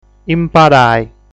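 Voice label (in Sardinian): "Imparai", Sardinian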